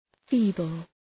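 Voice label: "feeble", English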